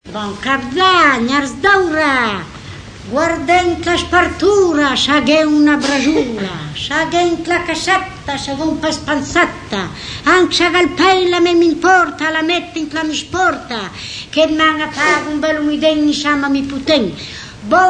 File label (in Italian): filastrocca; bon capd'an, arzdora